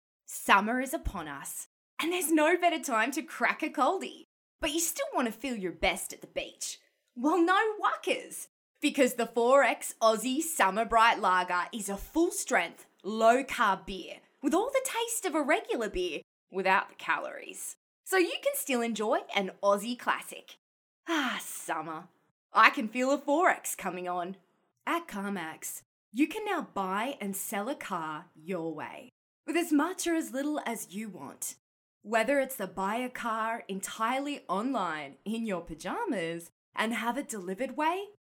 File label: COMMERCIAL VO